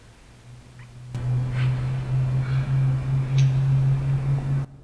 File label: Male; evp